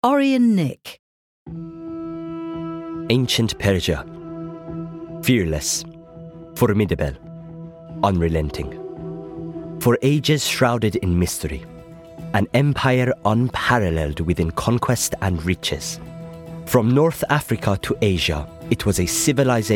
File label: iranian accent, commercial